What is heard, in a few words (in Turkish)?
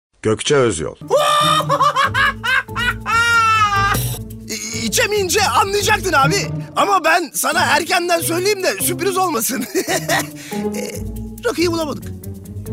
animasyon